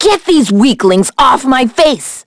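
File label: Vox Skill, voices heroes English; Miruru; kings raid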